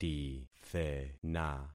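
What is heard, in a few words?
"tithena", concatenated syllables; Affixing experiment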